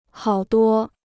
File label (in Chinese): "好多", Chinese